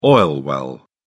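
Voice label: "oil well", British English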